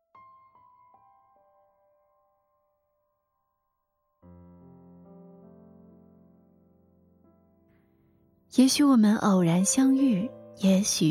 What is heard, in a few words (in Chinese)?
电台风格